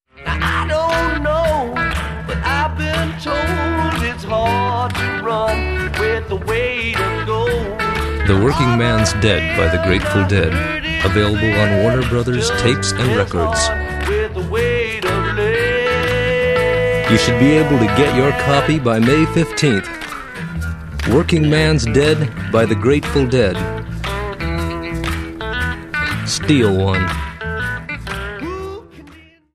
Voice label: Radio Promo